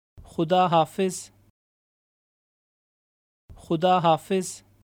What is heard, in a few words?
"Goodbye", Urdu